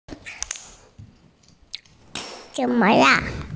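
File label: "zuo4wei4", Mandarin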